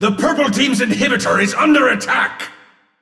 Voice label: Announcer spectator Structure Warn Inhibitor Purple; leagueoflegends